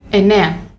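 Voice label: "ennea", Ancient Greek; ἐννέα enéa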